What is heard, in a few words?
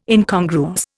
"Incongruous", Indian